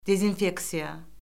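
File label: "dezinfeksiya", Azerbaijani; Orfoqrafiya Lüğəti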